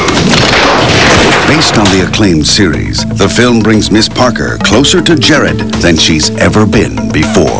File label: narrator